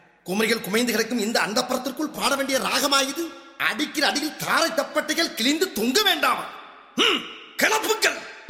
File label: Comedy; Vadivelu